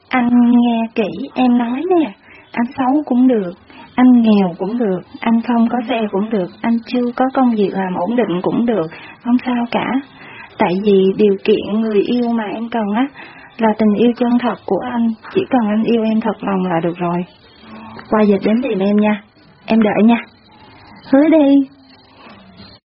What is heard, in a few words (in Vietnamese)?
giong con gai de thuong hay nhat